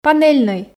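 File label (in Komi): "панельнӧй", Komi, female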